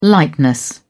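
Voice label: "lightness", English, British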